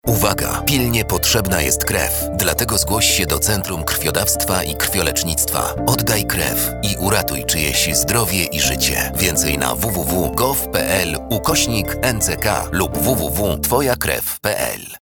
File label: radio